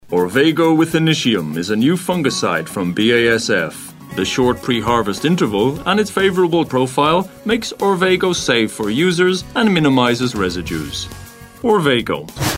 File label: english irish corporate